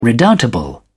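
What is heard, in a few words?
"redoubtable", English, British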